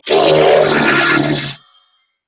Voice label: Death Grineer Female; New War; Narmer

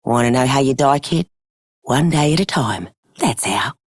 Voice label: Female Scum, en; Dialog; Warframe; Solaris Venus

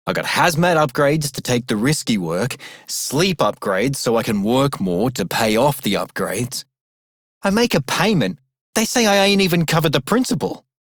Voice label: Male Worker, en; Dialog; Warframe; Solaris Venus

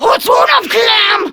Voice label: Player Spotted, Grineer Female

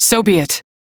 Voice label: Crew Follow, Female; en; Warframe; Railjack Crew; New Loka

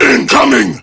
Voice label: Prime Grineer Male; Warframe; Tau; Battle

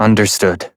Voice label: Operator Voice, en; Warframe; New War

